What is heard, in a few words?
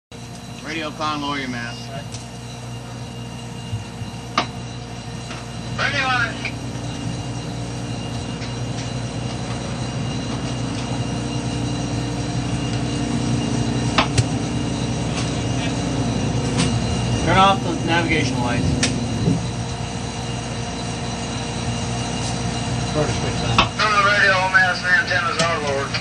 Radio lower your masts